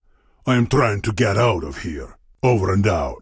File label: Voice Dialog; We Are the Dwarves